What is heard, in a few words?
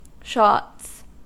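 "shots", American English; pronunciations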